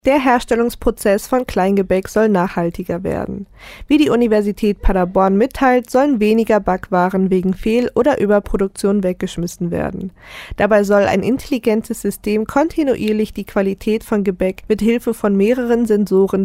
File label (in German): News; Backen